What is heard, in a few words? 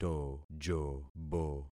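"tojobo", concatenated syllables; Affixing experiment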